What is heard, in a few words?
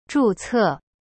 "zhu4ce4", Chinese; cyberchinese